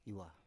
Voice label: "iwa", Biak